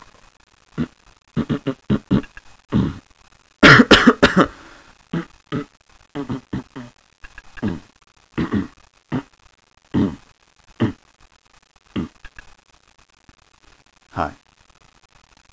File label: MEEP, spoken word